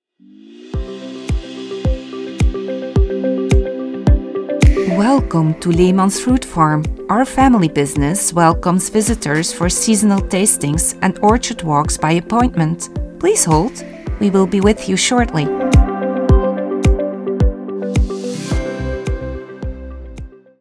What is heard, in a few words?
Fruitfarm EN avec musique